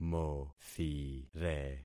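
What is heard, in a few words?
"mothire", concatenated syllables; Affixing experiment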